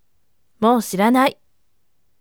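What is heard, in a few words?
"moushiranai", firm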